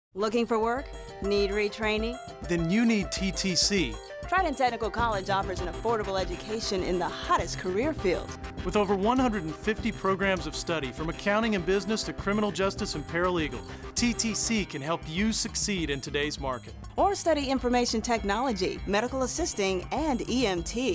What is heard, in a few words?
Radio Spot; TTC